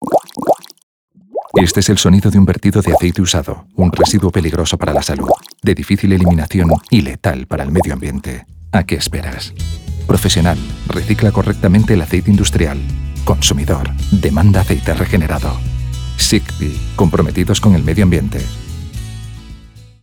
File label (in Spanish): Cuña; Sigpi 2018